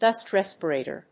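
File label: "DUST RESPIRATOR", English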